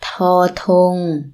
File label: toor tong, Thai